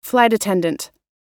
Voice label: "flight attendant", us